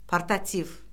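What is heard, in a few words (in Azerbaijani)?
"portativ", Azerbaijani; Orfoqrafiya Lüğəti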